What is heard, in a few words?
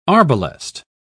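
"arbalest", British English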